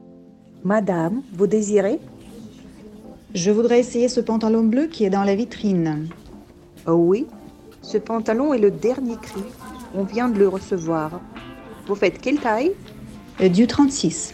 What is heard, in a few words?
dialogue, francais